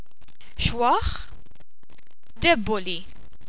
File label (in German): schwach, Maltesisch